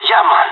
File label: affirmative, pirate; vo; Counter Strike Model Pack; CS:GO